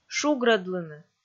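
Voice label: "шугрӧдлыны", Komi, female; kpv audio dict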